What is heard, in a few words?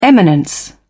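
"eminence", British English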